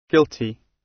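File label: "guilty", British English; Crime and punishment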